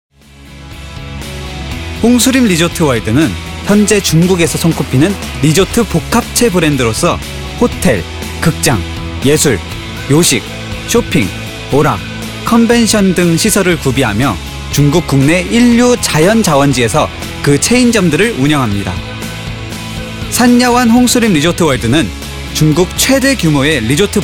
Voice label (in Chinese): 外籍韩语男